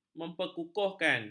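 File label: "memperkukuhkan", Malay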